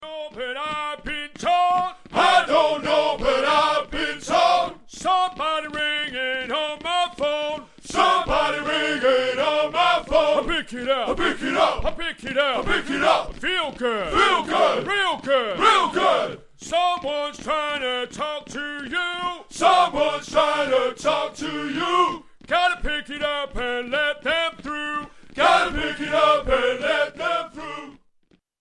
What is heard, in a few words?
Drill Sergeant Ringtone